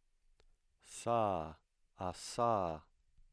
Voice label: voiceless alveolar fricative

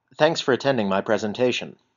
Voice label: thanks band compressed

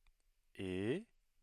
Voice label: low-rising tone

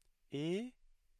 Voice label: high-rising tone

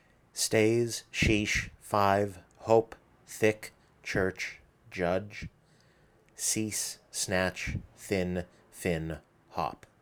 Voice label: fricatives

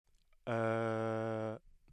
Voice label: low front rounded vowel

